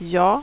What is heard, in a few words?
"Yes", es, Adult, Male; sv